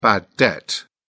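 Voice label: "bad debt", British English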